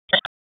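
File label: Police District Tactical, p25; Raleigh; Northeast; wake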